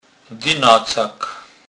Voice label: "dynacak", kurpiow

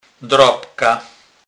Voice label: "drabka", kurpiow

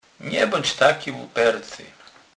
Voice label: "luperty", kurpiow

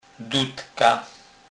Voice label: "dudka", kurpiow

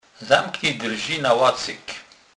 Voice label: "lacyk", kurpiow

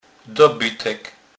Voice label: "dobytek", kurpiow

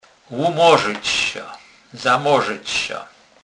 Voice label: "lumorzec", kurpiow

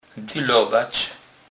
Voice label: "dylowac", kurpiow

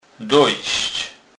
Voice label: "dojsc", kurpiow

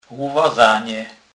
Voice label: "luwazane", kurpiow

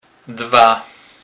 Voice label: "dwa", kurpiow

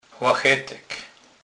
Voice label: "lachetek", kurpiow

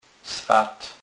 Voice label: "swat", kurpiow slownik

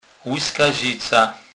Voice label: "lyskazica", kurpiow

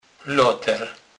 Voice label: "loter", kurpiow

